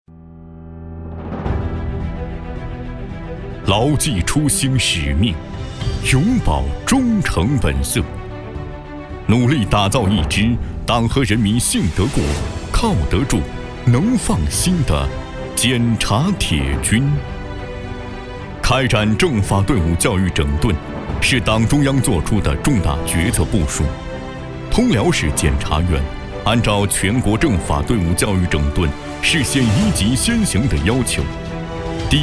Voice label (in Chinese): 男 政府宣传; 通辽市检察院